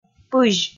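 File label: "пызь", Komi, female; kpv audio dict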